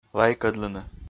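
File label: "лайкӧдлыны", Komi, male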